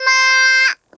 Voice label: "ma" C5; 音MAD; 日向